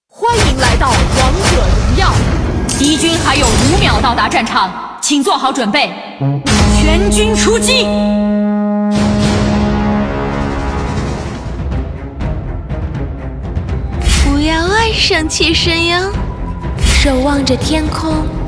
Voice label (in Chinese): 女, 模仿, 女英雄; 王者荣耀